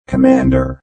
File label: Robot Voice "Commander"; Robot Type 2 voice; Lynne Music